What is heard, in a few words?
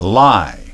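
"lie", English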